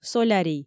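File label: "солярий", Komi, female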